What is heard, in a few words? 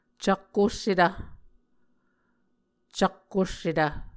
"cak-ossetv", muscogee